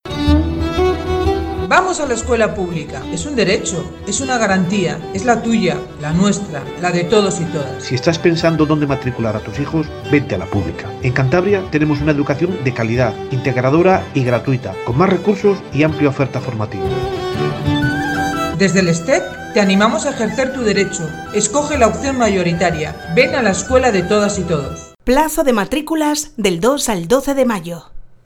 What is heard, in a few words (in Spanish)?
cuña radio; CASTRO 2023